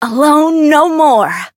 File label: variable chant; mayu